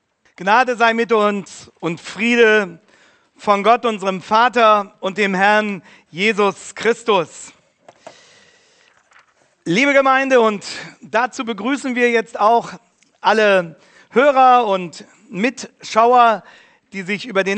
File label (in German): Predigt; Predigt vom 17.05.2020 Markus 15, 37-41+47; BEG-Hannover